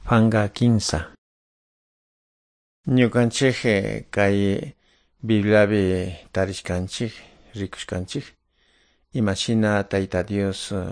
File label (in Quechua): good news quichua de calderon